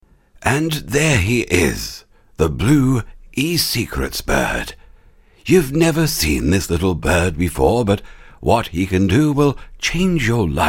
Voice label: David Attenborough Style

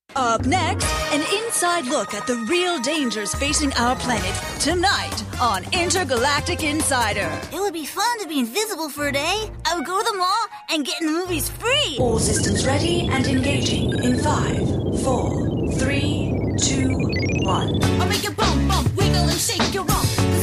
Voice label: Voice Talent Character Animation

